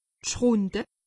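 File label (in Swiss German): "schrunde", Alsatian; medecine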